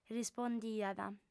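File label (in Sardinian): "rispondiat", Sardinian, female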